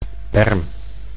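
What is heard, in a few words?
"berm", Dutch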